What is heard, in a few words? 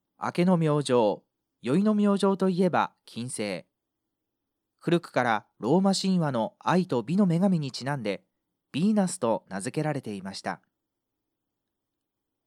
male